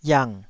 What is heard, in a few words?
"iang3", taiwanese syllable